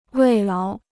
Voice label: "慰劳", Chinese

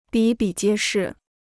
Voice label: "比比皆是", Chinese

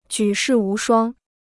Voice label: "举世无双", Chinese

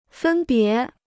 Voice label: "分别", Chinese

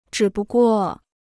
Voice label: "只不过", Chinese

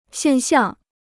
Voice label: "现象", Chinese

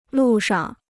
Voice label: "路上", Chinese

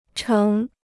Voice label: "成", Chinese

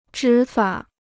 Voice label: "执法", Chinese

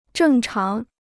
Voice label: "正常", Chinese

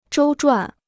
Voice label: "周转", Chinese

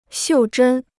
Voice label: "袖珍", Chinese

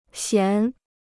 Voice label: "弦", Chinese

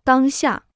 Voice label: "当下", Chinese

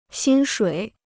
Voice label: "薪水", Chinese

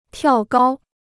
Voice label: "跳高", Chinese

